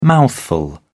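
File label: "mouthful", British English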